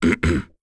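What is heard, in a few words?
Vox Deny, English; Bernheim; kings raid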